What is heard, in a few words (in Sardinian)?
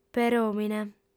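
"peròmine", female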